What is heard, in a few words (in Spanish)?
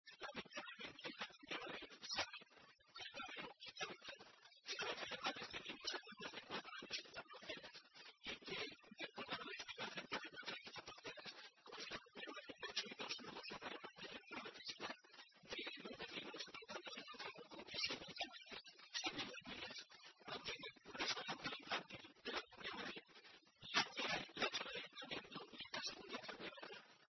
noticias